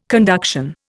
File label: "conduction", Indian